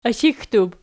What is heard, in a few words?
"ishikdub", Archi